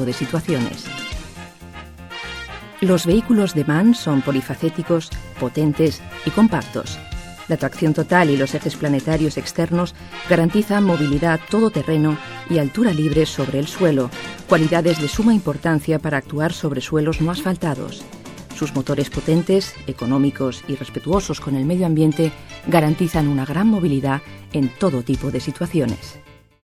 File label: es publi Bomberos